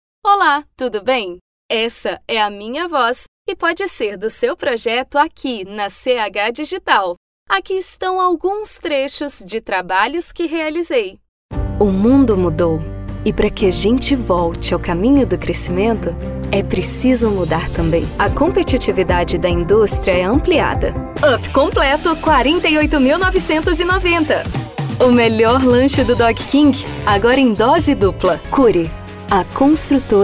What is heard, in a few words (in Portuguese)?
Voz Feminina